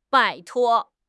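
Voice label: "拜托", Mandarin Chinese; HSK; HSK6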